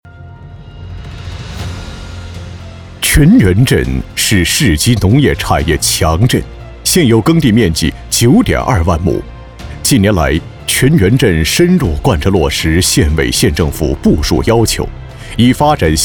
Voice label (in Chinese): 男 政府专题; 泉源镇配音稿